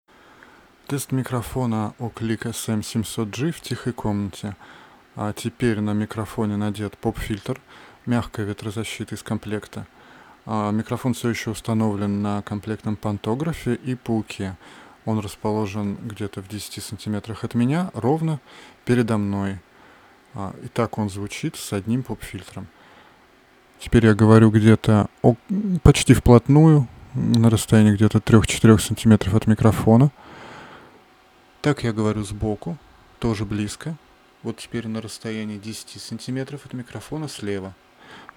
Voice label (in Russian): oklick sm 700g s pop filtrom; Oklick SM-700G - С поп-фильтром; USB микрофоны